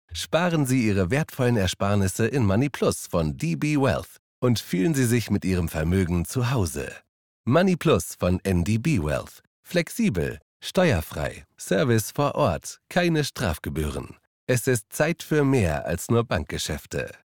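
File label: German male voice over, narrative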